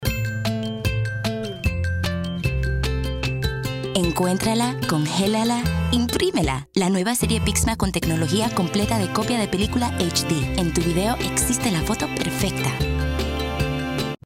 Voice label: Audio Spanish

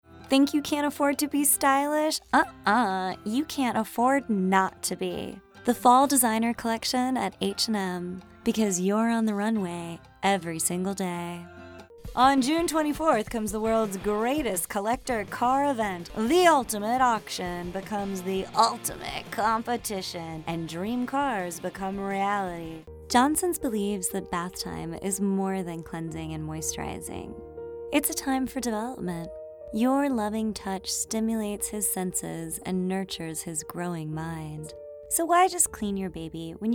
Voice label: Commercial

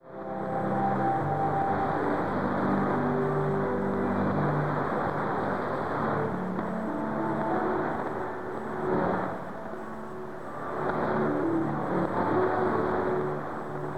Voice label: Bible Voice inglese dipolo; shortwave; Bible Voice BCN; FT2000

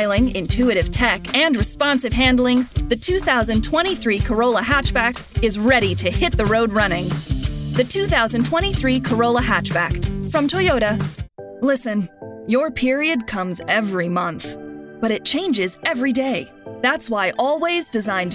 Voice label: Commercial

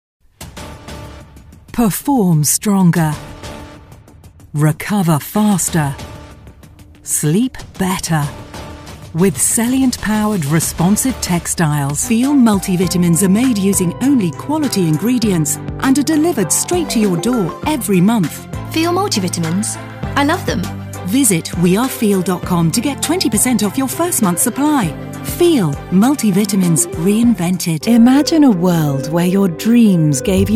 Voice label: Commercial

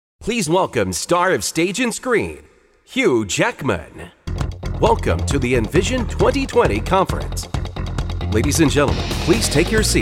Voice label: Live Announce

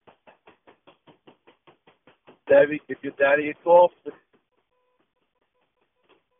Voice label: voicemail give your daddy a call

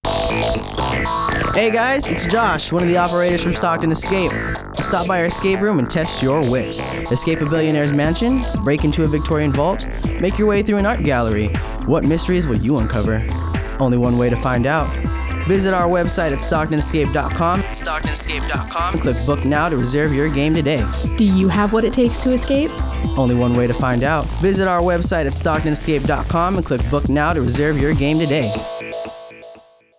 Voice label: Radio ad

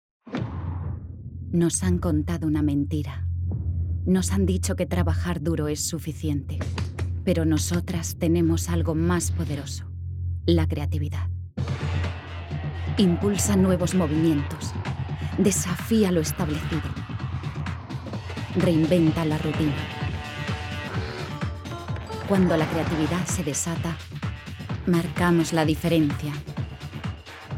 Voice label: dubbling Spot; Adidas

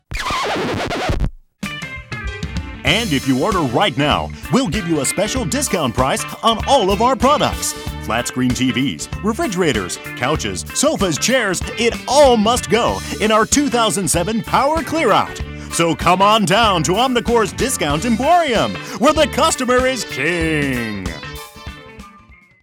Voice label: Over-the-top TV CM Voice; ショッピングチャンネル用・ボイス/Shopping Channel Voice; Narration Demo

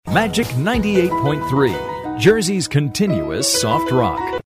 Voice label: Soft Rock - Smiling

